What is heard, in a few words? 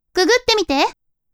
woman